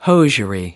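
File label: "hosiery hose", American English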